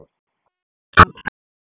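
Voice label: Raleigh Police North District Tactical; wake; p25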